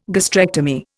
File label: "gastrectomy", Indian